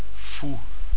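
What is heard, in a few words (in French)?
"fou", French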